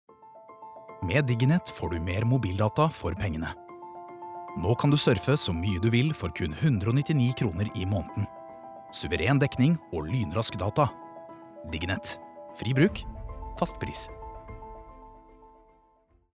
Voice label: no reklame, norwegian, male